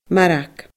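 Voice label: "marag", Scottish Gaelic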